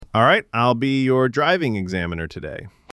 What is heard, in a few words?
Male Intro